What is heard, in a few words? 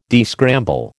"descramble", American